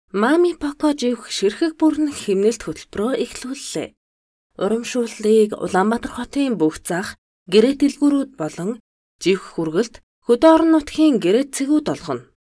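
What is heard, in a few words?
Mongolian, female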